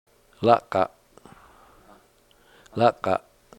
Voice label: "lak'a7", Washo, one